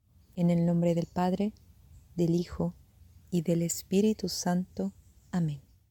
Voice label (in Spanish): señal de la cruz español lento